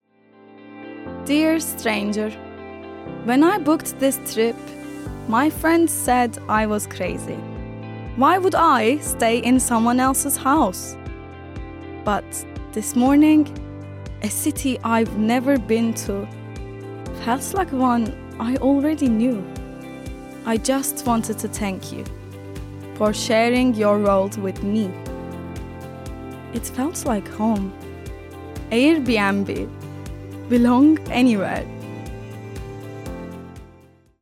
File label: Turkish accented English